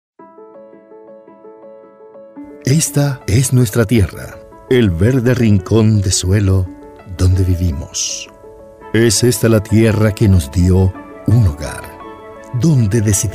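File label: NARRATIONS SPANISH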